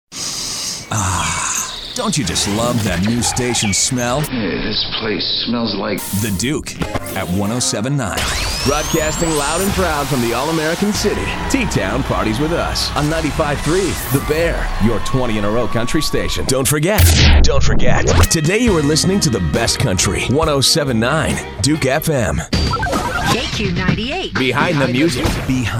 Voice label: radio; imaging